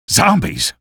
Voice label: alert zombie, male